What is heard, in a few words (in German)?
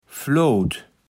"Floot", platt